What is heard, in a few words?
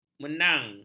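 "menang", Malay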